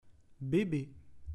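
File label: "Bebe", French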